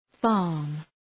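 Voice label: "farm", English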